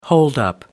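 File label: "holdup", British English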